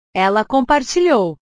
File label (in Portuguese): "ela compartilhou", portugues; compartilhar; portugues/verbos